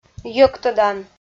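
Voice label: "йӧктӧдан", Komi, female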